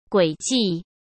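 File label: "轨迹", Mandarin Chinese; chinese-flashcards; hsk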